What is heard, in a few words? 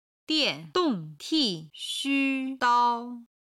"diandong tixudao", chinese